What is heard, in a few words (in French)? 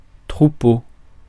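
"troupeau", French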